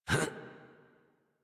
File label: Adult Operator Jump, en; Warframe; New War